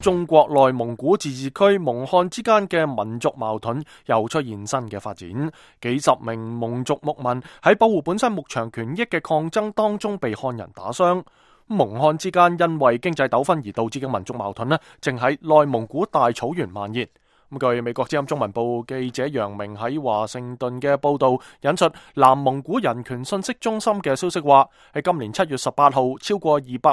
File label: cantonese